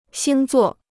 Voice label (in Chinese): "星座", Chinese